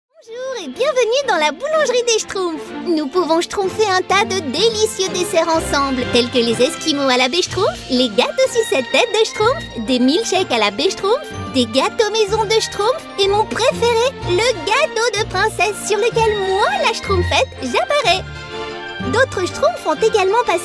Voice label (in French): voix jeux; schtroumpfette